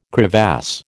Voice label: "crevasse", American

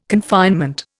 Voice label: "confinement", Indian

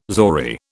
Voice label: "zori", British

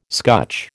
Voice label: "scutch", American

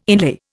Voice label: "inlay", Indian

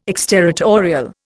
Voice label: "exterritorial", Indian

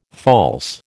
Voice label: "false", American

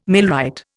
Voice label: "millwright", Indian

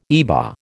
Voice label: "IBA", American

